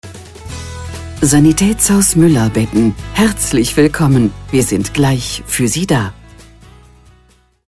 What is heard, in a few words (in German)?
Sanitaetshaus Betten Ansage IVR; Telefonansage; Sanitaetshaus Mueller; 1a-telefonansagen